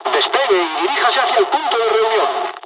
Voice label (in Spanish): takeoff, dialogs spanish; midway jpn1; War Thunder